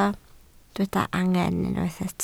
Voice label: "angen", Welsh

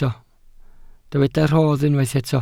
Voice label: "rodd", Welsh

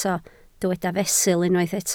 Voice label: "fesul", Welsh

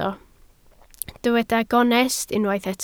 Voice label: "gonest", Welsh

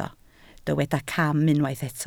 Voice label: "cam", Welsh

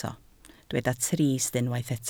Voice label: "trist", Welsh

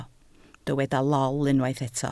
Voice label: "lol", Welsh

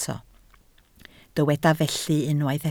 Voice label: "felly", Welsh

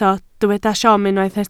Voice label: "siom", Welsh

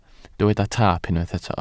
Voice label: "taap", Welsh

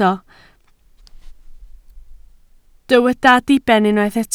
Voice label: "diben", Welsh

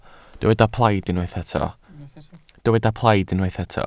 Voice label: "plaid", Welsh

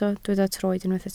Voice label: "troed", Welsh

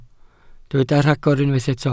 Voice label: "rhagor", Welsh

